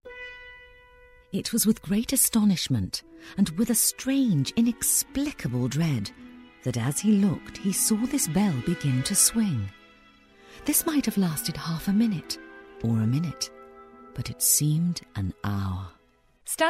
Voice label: Narration